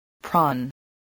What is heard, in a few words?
"prawn", voice, spelling